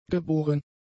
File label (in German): "geboren", German; adjectives